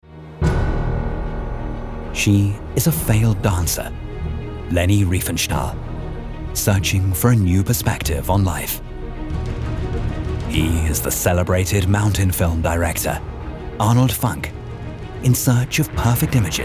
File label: documentary; riefenstahl